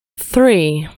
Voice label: "three", inglese; numeri in inglese